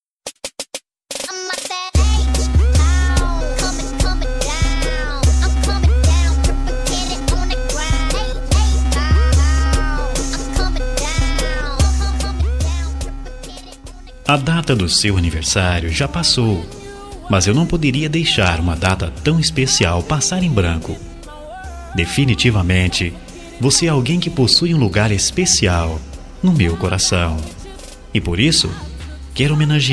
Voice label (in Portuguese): Aniversario Atrasado Masculino, telemensagem